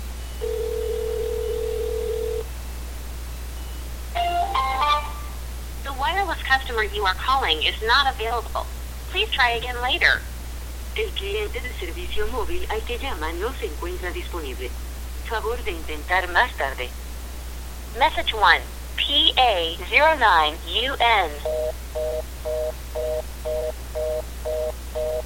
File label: att 2g voicemail end; att 2g sunset